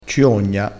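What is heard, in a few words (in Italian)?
"ciogna", dialetto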